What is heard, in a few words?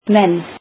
icelandic men